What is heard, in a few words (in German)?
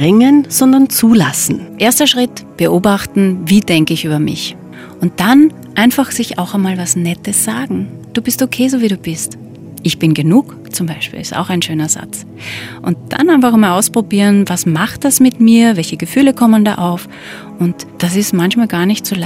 Yogastunde Meditation; Radio Wien